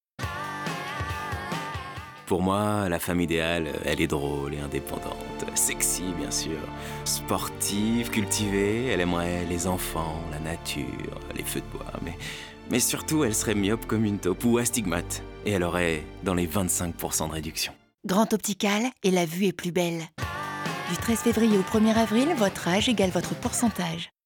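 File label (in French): douce, comédienne voix off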